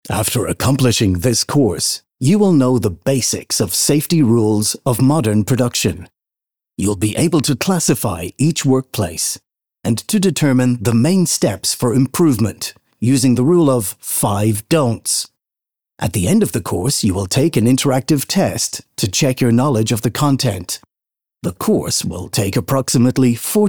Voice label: english irish e-learning, irish voice actors

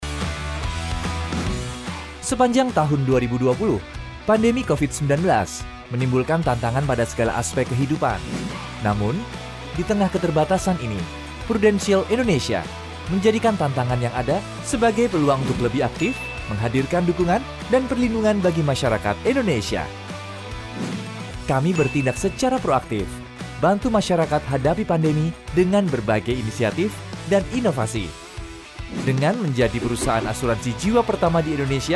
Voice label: indonesian corporate videos

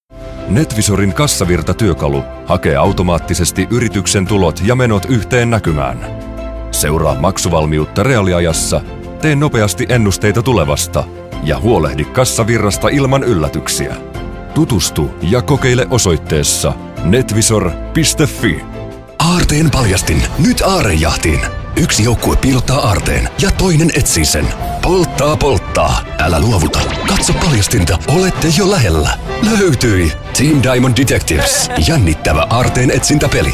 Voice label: finnish commercial

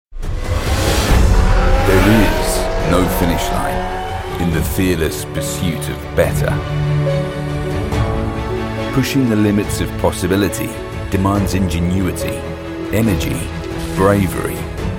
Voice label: english british automotive

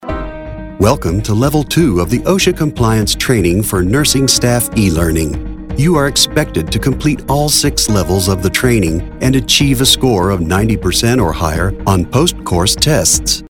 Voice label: english american e-learning

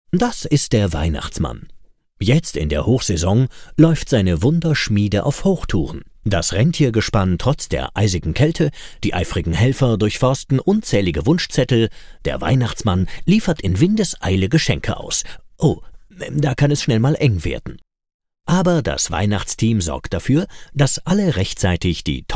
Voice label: german animation

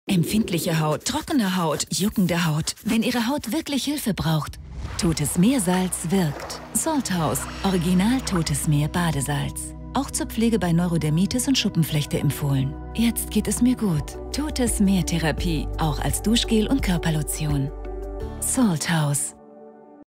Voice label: german commercial

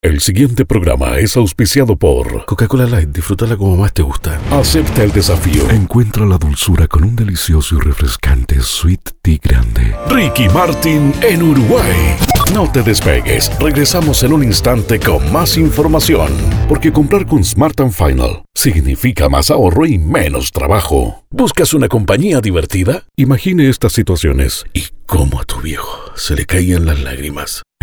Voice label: spanish latin american commercial

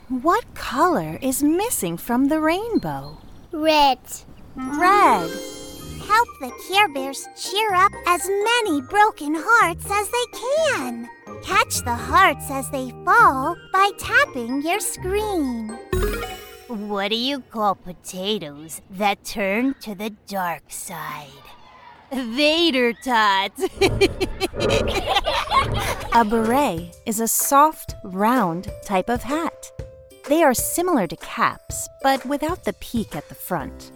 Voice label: english american e-learning; professional American voice actor, singer, and master whistle

